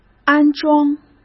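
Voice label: "安装", Mandarin Chinese; chinese flashcards; hsk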